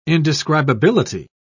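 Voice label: "indescribability", British English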